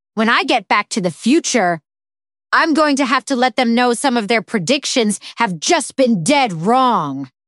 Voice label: upset; nadia; fertig classic games